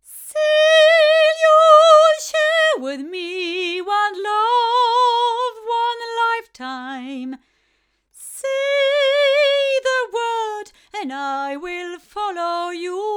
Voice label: female; Milab LSR-1000; microphones